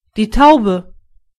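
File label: dove, German voices words; gcompris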